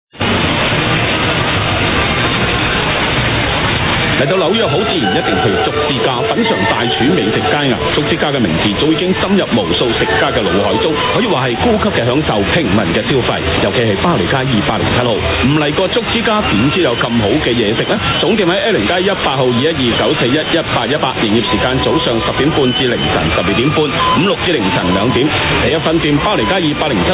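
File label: cantonese am radio; wzrc 1480; ny usa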